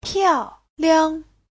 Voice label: "piao4liang5", Chinese